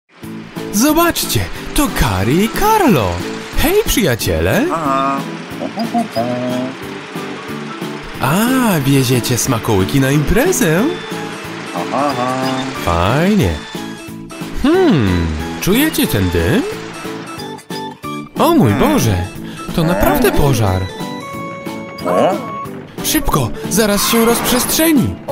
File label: male polish cartoon animation